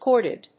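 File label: "corded", English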